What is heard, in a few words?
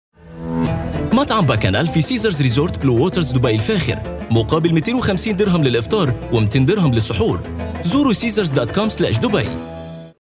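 Arabic, male, Radio AD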